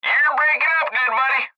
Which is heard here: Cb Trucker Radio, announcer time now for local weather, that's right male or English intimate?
Cb Trucker Radio